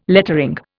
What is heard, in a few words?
"lettering", Indian